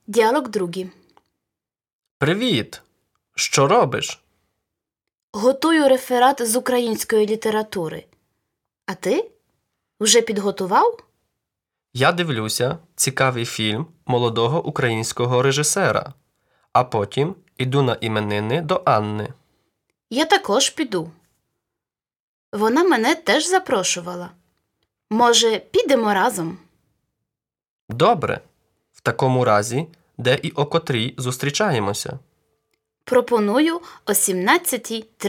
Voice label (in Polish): Lekcja Dialog